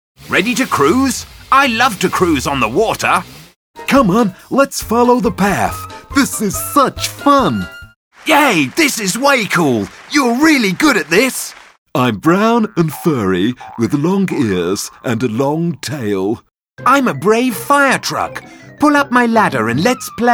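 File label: British, toys; UK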